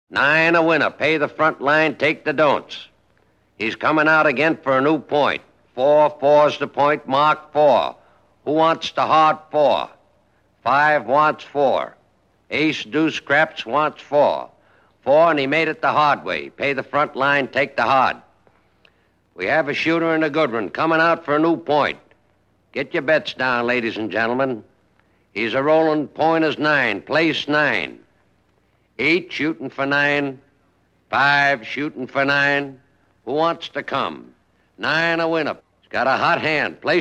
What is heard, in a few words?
Dealer Calls Out Dice Throws; Crowds